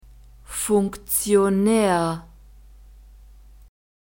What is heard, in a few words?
"funktionaer", German; masculine